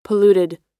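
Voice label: "polluted", American English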